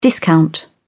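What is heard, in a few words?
"discount", English, British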